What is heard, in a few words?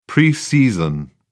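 "preseason", British English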